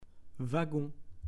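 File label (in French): "wagon", French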